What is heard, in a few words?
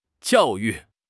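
"jiao yu" Chinese; 汉语口语新时代-初级下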